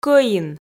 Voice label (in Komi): "кӧин" Komi